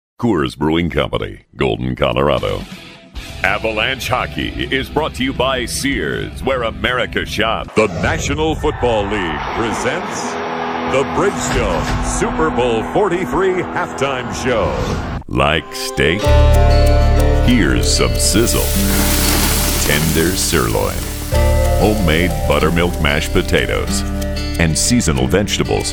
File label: Commercial VO